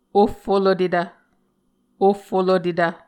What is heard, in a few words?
"ohfolotetv", Muscogee